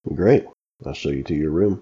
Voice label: Great Ill show you to your room, Average Male; Daz3D